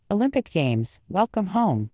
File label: baseline conversational female